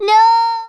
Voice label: nooo, Speech Rasta